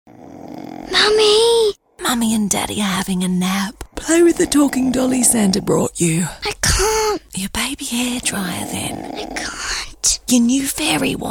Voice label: conversational